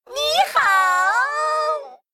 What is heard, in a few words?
Male Mech No Cards; zh